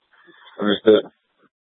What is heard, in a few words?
Public Transit System Light Rail Ops, scanner; Valley Metro